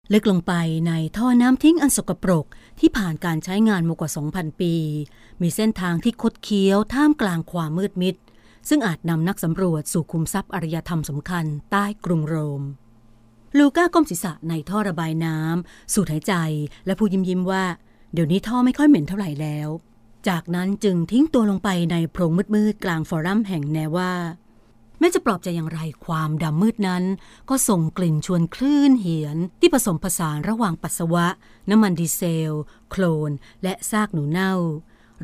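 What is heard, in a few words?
Thai, Narrative; GoLocalise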